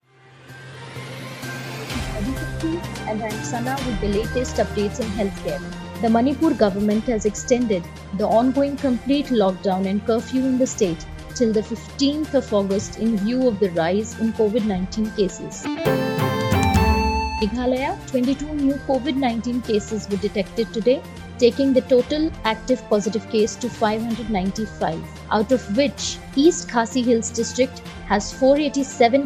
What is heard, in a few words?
english news